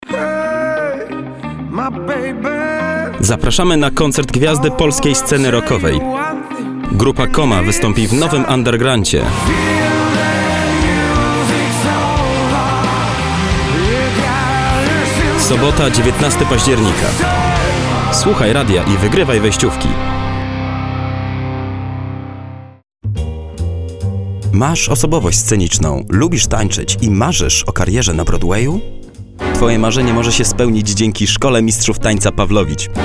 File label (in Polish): M REKLAMA